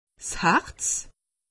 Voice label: "harz", alsace; medecine

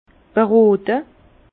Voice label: "berote", Bas Rhin; vin; conseiller

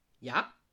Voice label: "ja", alsace

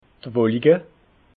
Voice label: "wolike", alsace

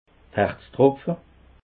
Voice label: "herztropfe", alsace

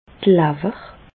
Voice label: "d'r Lawer", Bas Rhin; foie; medecine

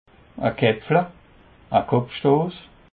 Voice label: "kepfle", alsace

